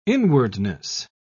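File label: "inwardness", British English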